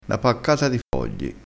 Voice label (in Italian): "paccata", crespinese